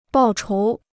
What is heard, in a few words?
"报仇", Chinese